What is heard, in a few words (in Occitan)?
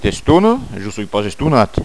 "estonat", Gascon